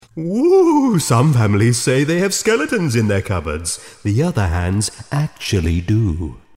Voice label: children

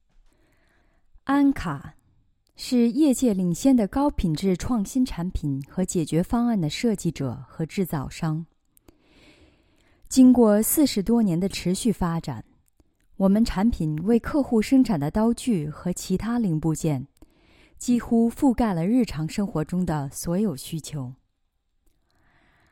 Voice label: Voice Over

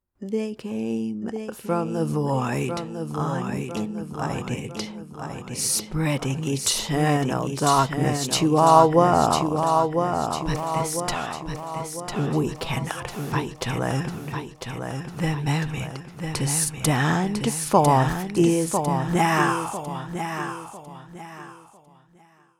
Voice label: Game Trailer Fade

